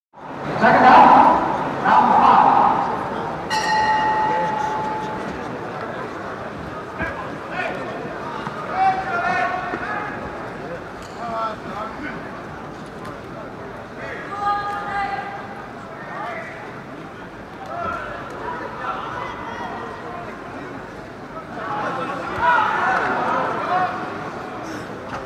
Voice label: Announcement - seconds out, round 5, bell, into round; Miscellaneous Sport Sound Effects; BBC